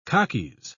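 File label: "khakis", British English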